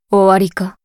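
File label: story, Japanese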